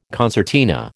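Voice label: "concertina", American English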